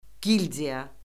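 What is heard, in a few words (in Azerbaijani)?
"gildiya", Azerbaijani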